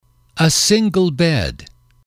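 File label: "a single bed", English, male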